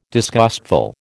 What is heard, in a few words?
"disgustful", American